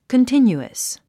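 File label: "continuous", English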